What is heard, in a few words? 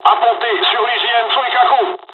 landing, dialogs french; War Thunder